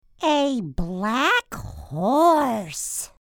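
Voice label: "black horse", English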